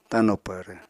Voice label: "tano pvrv", Apatani